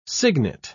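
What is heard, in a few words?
"signet", English, British English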